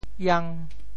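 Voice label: "iang5", Teochew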